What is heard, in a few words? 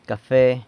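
"cafe", San Lucas Quiaviní Zapotec; coffee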